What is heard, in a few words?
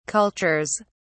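"cultures", British English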